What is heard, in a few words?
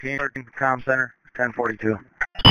Police Dispatch